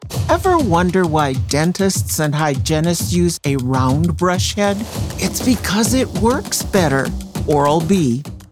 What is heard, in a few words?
commercial; Vibrant Voices; oralb